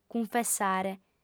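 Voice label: "cunfessare", female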